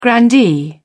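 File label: "grandee", English, British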